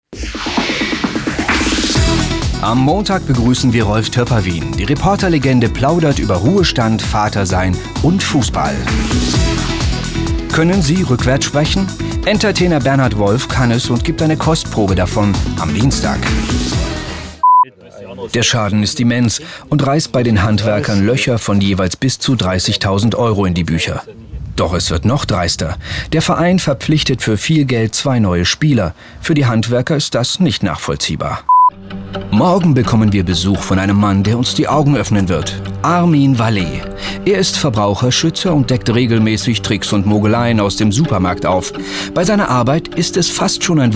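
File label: german male commercial; voice demos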